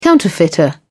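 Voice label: "counterfeiter", British English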